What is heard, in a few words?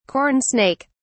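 "corn snake", British English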